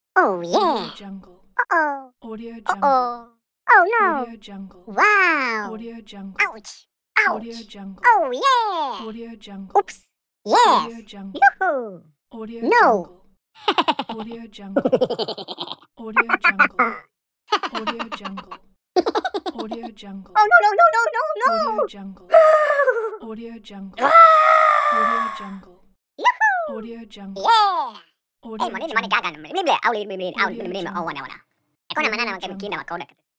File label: cartoon voice